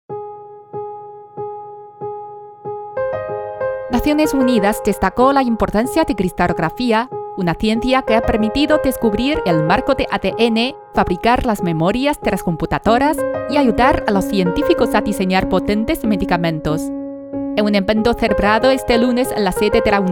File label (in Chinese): 西语; 科技之光